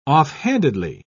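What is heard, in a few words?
"offhandedly", British English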